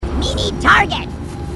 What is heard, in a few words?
grunt crazy; h2dialogue